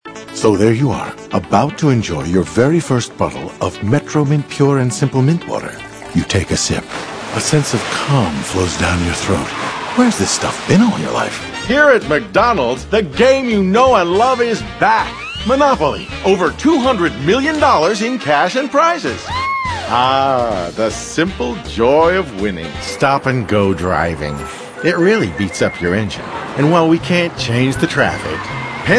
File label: male, sophisticated